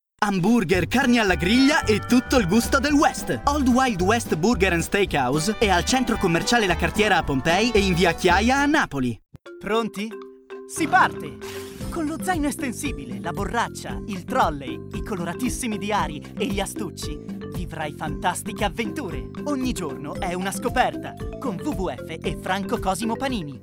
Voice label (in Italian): spot energici